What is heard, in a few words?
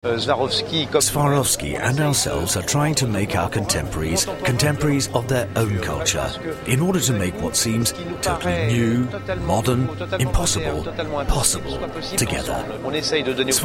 voice over VA VF Site; Palais de Tokyo